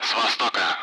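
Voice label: Speech Sound Russian Common Allied Flight; DCS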